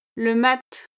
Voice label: "le mât", French